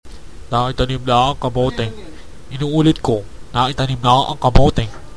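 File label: bomb planted, tagalog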